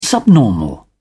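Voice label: "subnormal", British English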